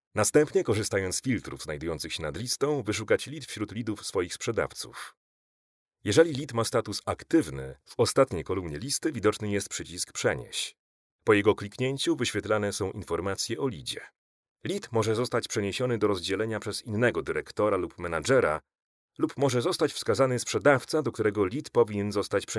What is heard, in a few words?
male polish voice over talent narrative